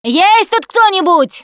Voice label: lost call, russian, Survivor Voice Producer